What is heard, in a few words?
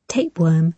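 "tapeworm", British English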